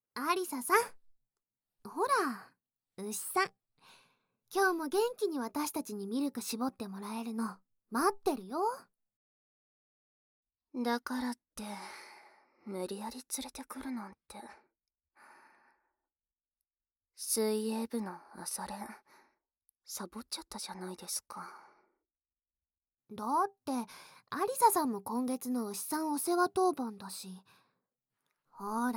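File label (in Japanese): フェラ搾り＆ねっとり囁き煽り; 効果音カット; ASMR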